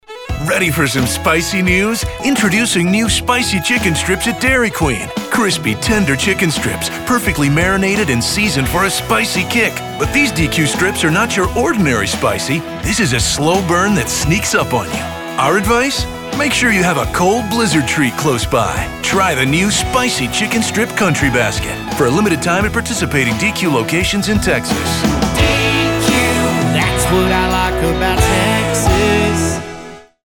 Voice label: ENGLISH RADIO